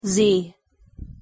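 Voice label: "z", English; pronunciation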